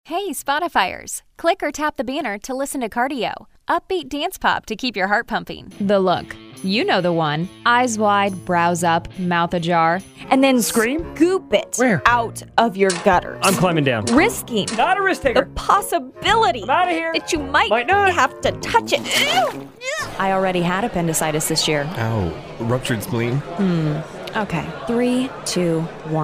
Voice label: commercial